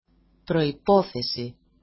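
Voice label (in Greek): "proipothesi", Greek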